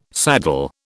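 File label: "saddle", British English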